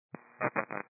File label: 122 725MHz, scanner radio